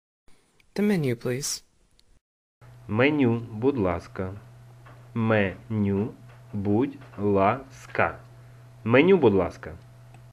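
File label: The menu please, Ukrainian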